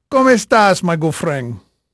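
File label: Spanish male, voice; Pirates Of The Caribbean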